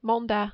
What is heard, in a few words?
"mandat", French